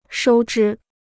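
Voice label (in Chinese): "收支" Chinese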